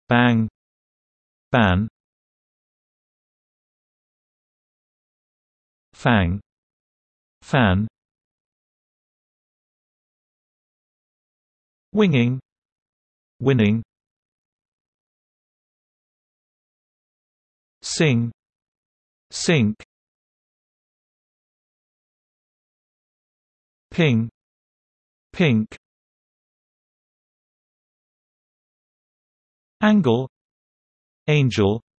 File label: n vs ng, UK; die buchstaben n und ng